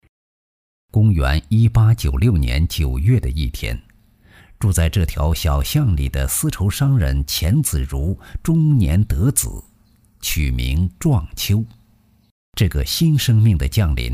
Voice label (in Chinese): 男国 专题 人物; 钱壮飞